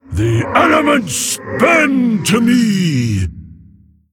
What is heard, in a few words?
Male Mogu; Fist of Raden